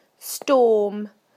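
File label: "storm", British English; PictureDictionary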